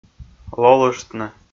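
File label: "лолыштны", Komi-Zyrian, male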